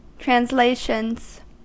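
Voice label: "translations", US English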